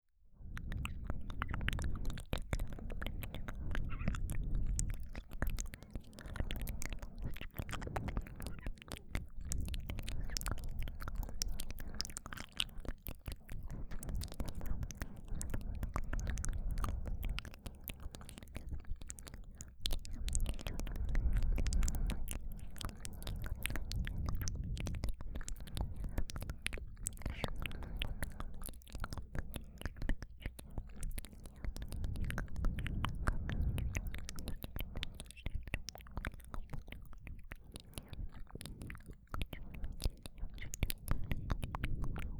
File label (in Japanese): 聞き取れない囁き(Inaudible Sounds), ASMR; 両耳Ver